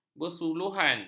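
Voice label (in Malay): "bersuluhan", Malay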